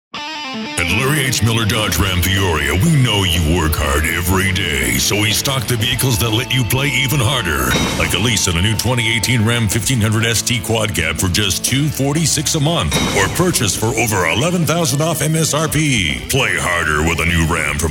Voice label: Car Dealer; Car Dealers

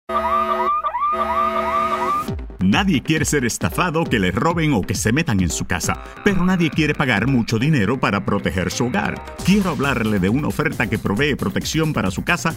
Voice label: spanish; Protect America

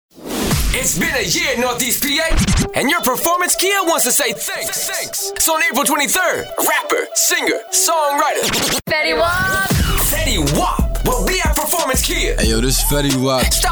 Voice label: Urban Automotive

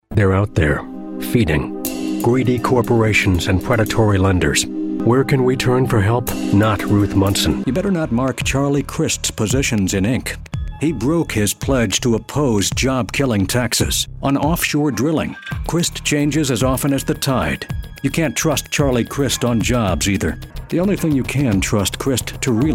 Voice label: Negative, political

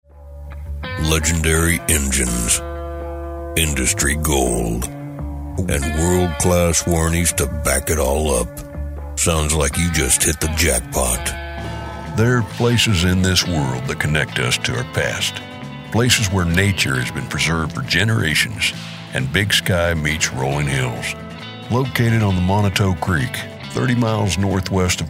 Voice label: Voice Demo

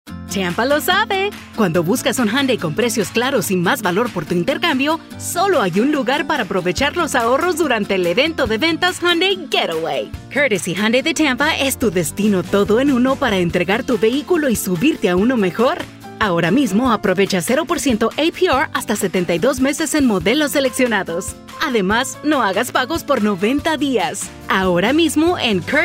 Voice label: auto, spanish radio production; spanish translation services

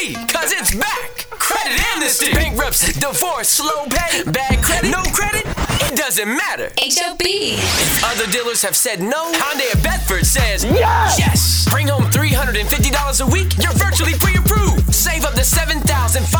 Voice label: Urban Automotive

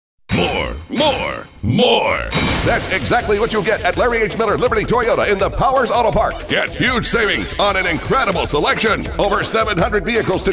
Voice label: Upbeat, Car Dealers; SpotWorks Radio Production

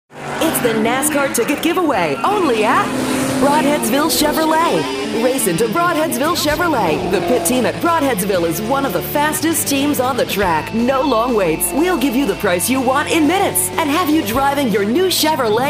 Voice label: Hard Sell, radio; Car Dealers

